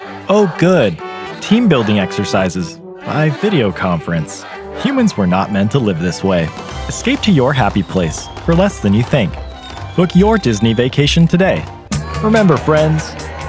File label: Commercial Demo